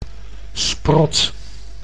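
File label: "sprot", Dutch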